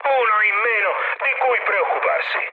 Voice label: player killed enemy mood med, speech it